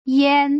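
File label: "yan", Putonghua; 零聲母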